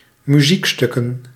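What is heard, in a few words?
"muziekstukken", Dutch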